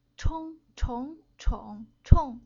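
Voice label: "Chong", Chinese syllable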